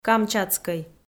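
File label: "камчатскӧй" Komi, female; kpv audio dict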